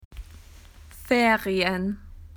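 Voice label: "holiday", Australian English; PictureDictionary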